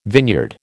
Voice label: "vineyard", American